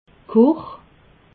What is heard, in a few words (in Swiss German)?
"kuch", alsace